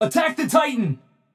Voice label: vox attack m; smite; xbalanque